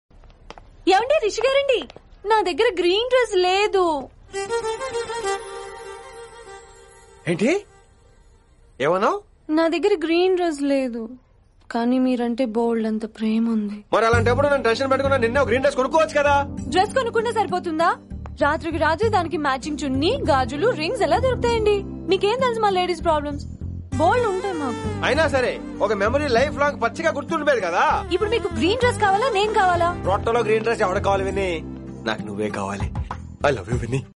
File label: Dialogue Telugu; Avandi Rishi Garu; Mirapakaya; Ravi Teja